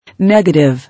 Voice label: "Negative", English

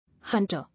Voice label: "Hunter", English

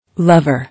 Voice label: "Lover", English

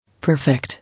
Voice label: "Perfect", English

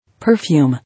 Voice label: "Perfume", English

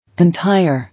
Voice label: "Entire", English

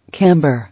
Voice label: "Camber", English

